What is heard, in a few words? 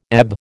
"ebb", American